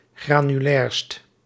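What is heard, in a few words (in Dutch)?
"granulairst", Dutch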